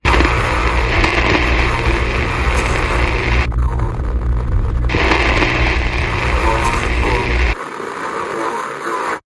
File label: Apocalypse Radio Voices